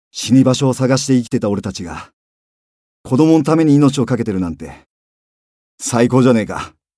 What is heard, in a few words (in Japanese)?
兵士